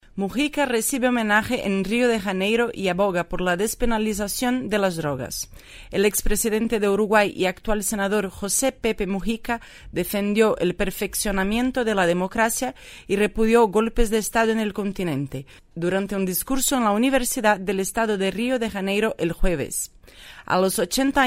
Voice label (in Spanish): voces, Español; mujica